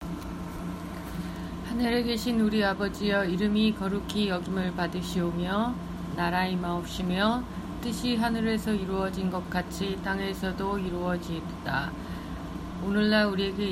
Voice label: korean